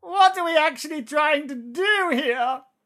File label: battle chatter